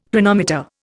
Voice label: "Planometer", Indian; Dictionary